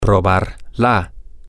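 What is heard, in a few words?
to taste try it, Spanish, male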